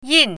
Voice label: "yin4", Chinese pinyin